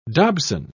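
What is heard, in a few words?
"dobson", British English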